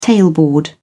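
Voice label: "tailboard", British English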